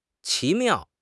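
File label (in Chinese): "奇妙", Chinese; HSK6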